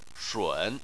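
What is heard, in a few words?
"shun", Chinese, third tone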